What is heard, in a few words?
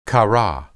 "qara", Hebrew